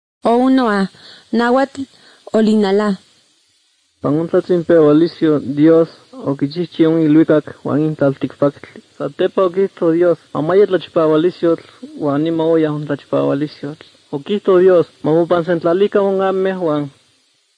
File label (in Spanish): spanish mexico